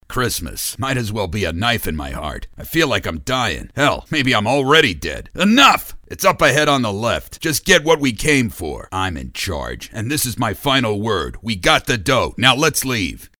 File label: TOUGH GUY; animation